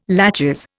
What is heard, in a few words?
"laches", Indian